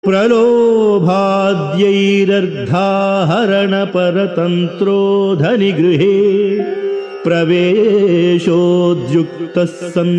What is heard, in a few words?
Sanskrit; Sri Adi Sankaracharyas Sivananda Lahari; Adi Shankaracharya; श्रीशिवानन्दलहरी २२